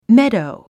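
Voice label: "meadow", English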